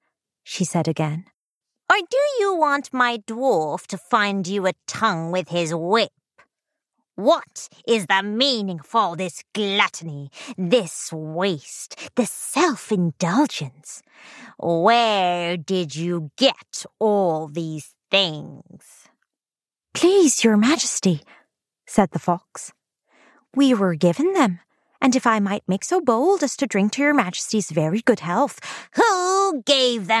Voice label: Fantasy 3rd Person Group Dialogue Witch Fox Squirrel, audiobooks